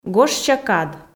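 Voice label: "гожся кад", Komi, female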